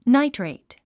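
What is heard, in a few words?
"nitrate", English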